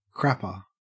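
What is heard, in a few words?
"crapper", Australian English; pronunciations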